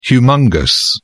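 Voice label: "humongous", English, British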